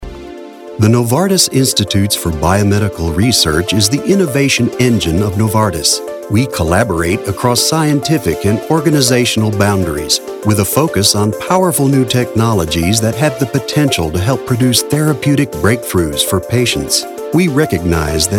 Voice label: english american corporate videos